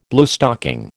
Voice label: "Bluestocking", American

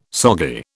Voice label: "soggy", British

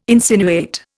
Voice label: "insinuate", Indian

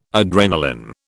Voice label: "adrenalin", British

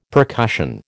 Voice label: "percussion", American

